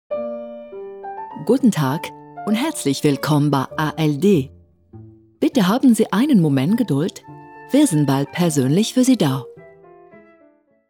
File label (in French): telephonie allemand